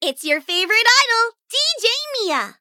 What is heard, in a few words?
menu tap eng; dj miya; Cookie Run